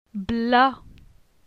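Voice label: "Bla", French; phonetique; la syllabe a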